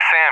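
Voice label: "SAM", English, USA; DCS; Allied Flight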